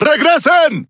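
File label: Spanish speech quick commands back ship; World Of Warships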